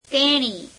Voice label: gujarati she; તેણી [teeṇeī]; Personal Pronouns